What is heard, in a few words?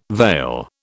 "veil", British